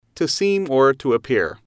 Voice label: to seem appear, English